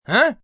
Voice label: "Huh" Question, male; Response; VoiceBox